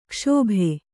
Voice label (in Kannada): "ಕ್ಷೋಭ", Kannada